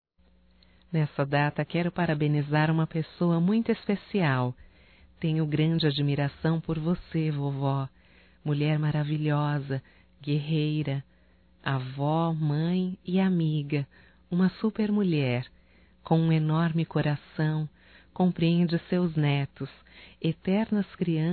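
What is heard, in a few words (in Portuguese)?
female, Telemensagens Aniversarios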